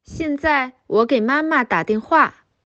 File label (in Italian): Cinese